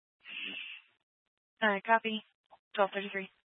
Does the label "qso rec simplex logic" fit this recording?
No